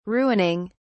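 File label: "ruining", English, British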